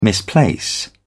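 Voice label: "misplace", British English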